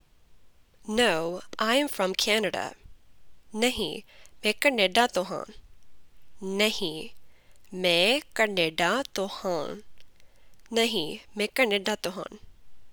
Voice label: Punjabi No I am from Canada

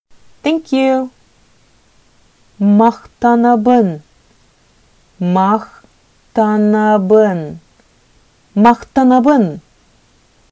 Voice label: Thank ou, Sakha

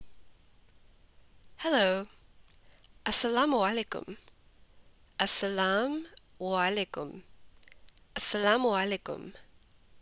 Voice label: "Hello", Punjabi

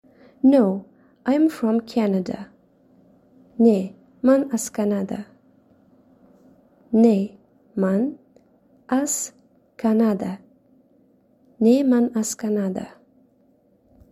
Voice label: No I am from Canada, tajik

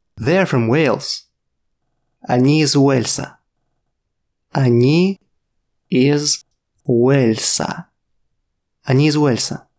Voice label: RUS They're from Wales

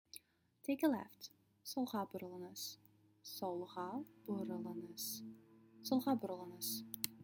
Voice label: Take a right, kazakh

